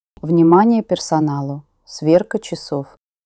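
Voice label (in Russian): оповещение сверка часов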